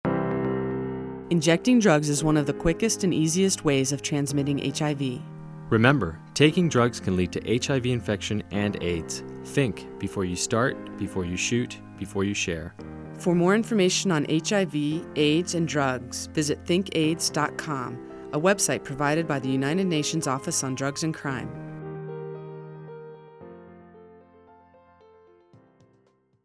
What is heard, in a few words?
spot usa music